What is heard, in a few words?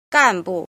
"jin", Chinese